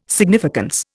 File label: "significance", Indian